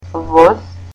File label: "train", Macedonian